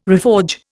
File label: "reforge", Indian